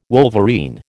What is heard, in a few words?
"wolverine", American